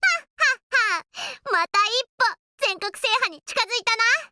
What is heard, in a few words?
Voice victory